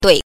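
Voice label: "dui", neutral tone, Mandarin Chinese pinyin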